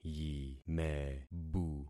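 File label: "yimebu", concatenated syllables; Affixing experiment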